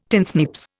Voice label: "tinsnips", Indian

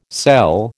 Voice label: "sell", American

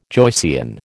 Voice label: "Joycean", American

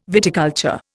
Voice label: "viticulture", Indian

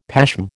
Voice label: "pashm", American

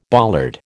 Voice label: "bollard", American

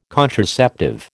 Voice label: "contraceptive", American

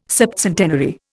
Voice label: "septcentenary", Indian

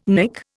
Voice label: "Nick", Indian

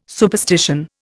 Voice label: "superstition", Indian

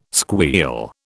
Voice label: "squeal", British

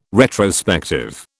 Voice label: "retrospective", British

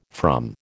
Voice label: "from", American

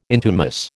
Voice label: "intumesce", American

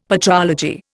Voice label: "patrology", Indian; Dictionary

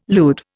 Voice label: "lewd", Indian

